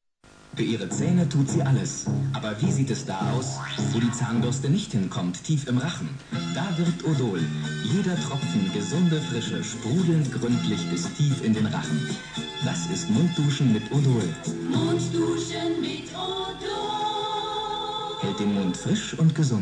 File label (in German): werbung; odol